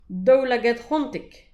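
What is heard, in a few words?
"daoulagad choantek", Breton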